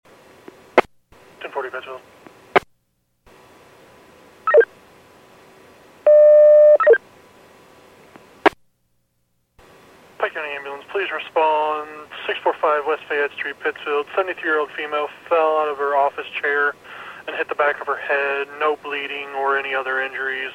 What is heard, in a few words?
PAGING